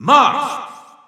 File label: French Announcer; Marth; SSBU